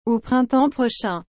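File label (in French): "au printemps prochain", French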